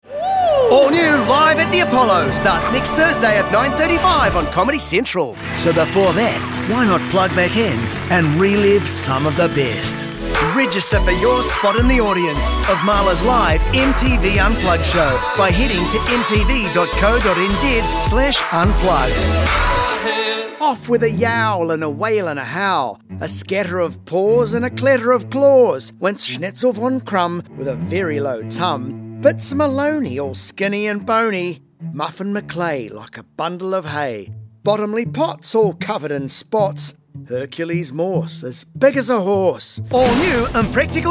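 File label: New Zealand ACCENTS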